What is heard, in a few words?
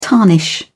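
"tarnish", British English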